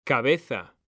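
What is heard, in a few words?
"cabeza", Spanish, male; mekanta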